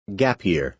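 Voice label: "gap year", American English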